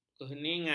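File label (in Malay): "keheningan", Malay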